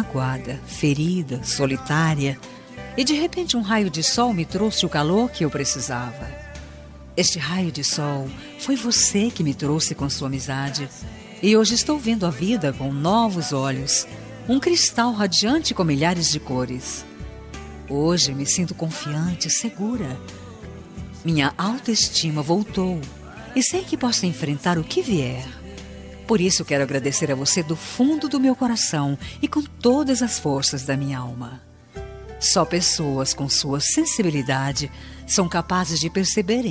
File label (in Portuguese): dia amigo, voz feminina; dia do amigo a voz feminina